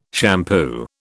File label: "shampoo", British